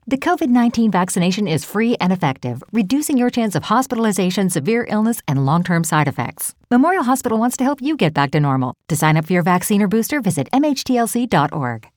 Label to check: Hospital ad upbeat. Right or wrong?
Right